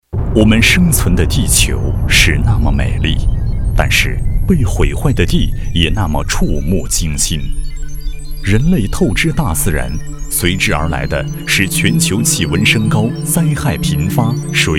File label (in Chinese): 男 大气, 浑厚磁性, 国语男声配音; 新能源